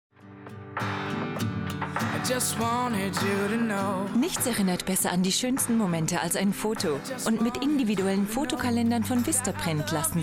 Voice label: TV spot; Vistaprint